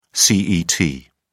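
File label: "cet", British English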